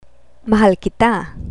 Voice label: mahal kita, Tagalog